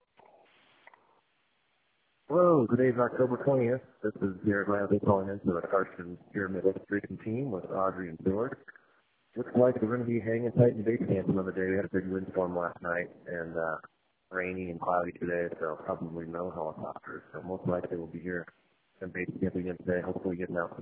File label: voicemail